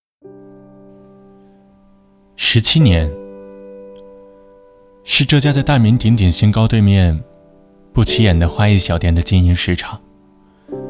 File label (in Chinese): 花店宣传